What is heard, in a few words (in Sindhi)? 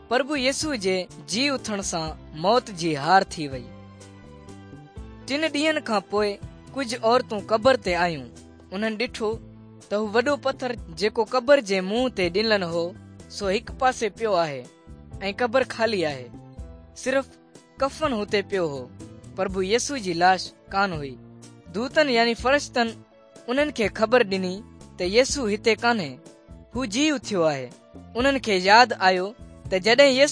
good news sindhi dukslinu, drama